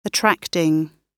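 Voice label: "attracting", British English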